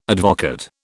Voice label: "advocaat", British

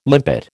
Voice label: "limpet", American

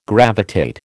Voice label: "gravitate", American

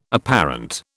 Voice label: "apparent", British

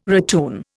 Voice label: "ratoon", Indian

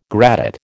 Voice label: "gradate", American

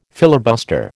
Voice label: "filibuster", American

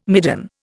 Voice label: "midden", Indian

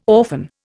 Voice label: "orphan", Indian

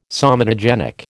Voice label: "somatogenic", American

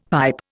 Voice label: "pipe", Indian; Dictionary

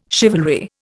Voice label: "chivalry", Indian

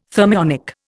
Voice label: "thermionic", Indian

